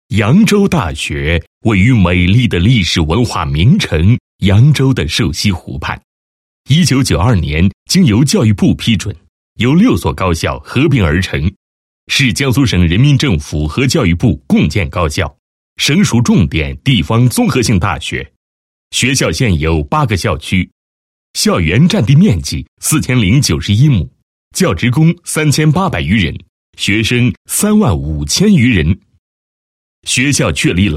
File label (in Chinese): 男 学校建设 后勤信息化建设配音; 扬州大学